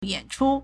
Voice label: "yan3chu1", Chinese